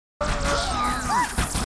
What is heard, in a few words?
grunt; h2dialogue; grunt crazy